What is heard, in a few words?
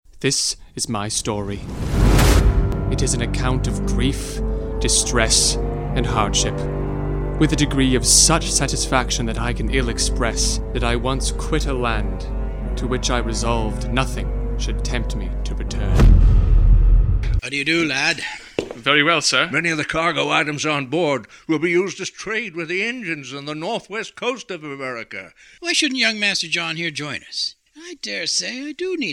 trailer promo; the taking